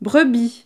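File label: "brebis", French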